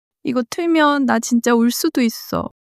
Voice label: crying, monologue